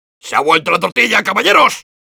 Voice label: Soldier cheers es

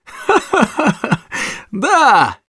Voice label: Scout positive vocalization ru

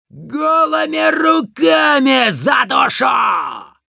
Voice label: taunts ru; Demoman

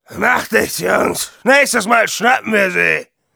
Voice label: Demoman jeers, de

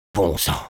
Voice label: negative vocalization fr; Sniper

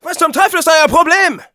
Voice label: jeers, de; Scout

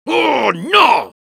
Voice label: Soldier negative vocalization, es

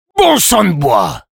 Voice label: positive vocalization, fr; Sniper

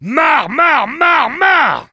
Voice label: negative vocalization, fr

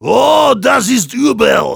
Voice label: negative vocalization, de; Heavy

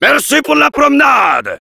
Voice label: Heavy thanks for the teleporter, fr

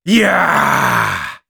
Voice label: battle cry, de; Sniper

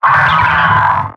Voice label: pain severe; Pyro

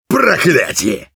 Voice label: negative vocalization, ru; Engineer

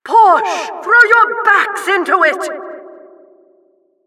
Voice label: Announcer race general

